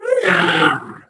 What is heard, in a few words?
angry